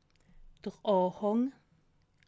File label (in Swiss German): "anhang", alsace